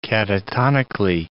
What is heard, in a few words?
"catatonically", English